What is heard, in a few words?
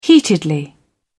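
"heatedly", British English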